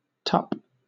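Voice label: "tup", British English; pronunciations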